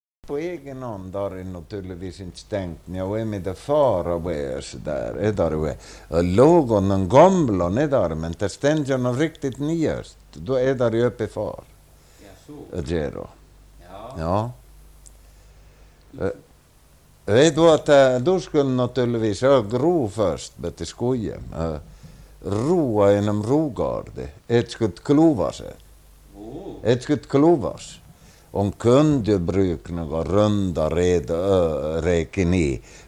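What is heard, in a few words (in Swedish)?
Orsa Dalarna, dialekter; Folkminnen; Svenska sagor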